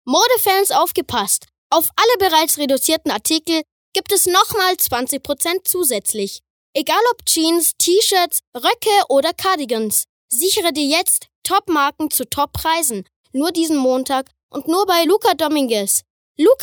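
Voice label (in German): advert, Dynamisch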